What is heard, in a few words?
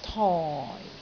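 "toi4", Cantonese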